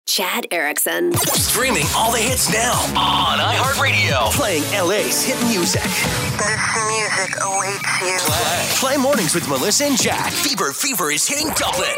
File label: radio hot ac